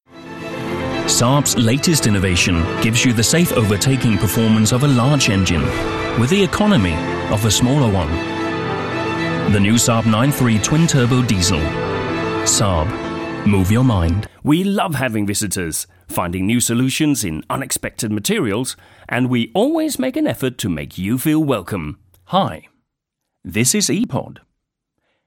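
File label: Danish English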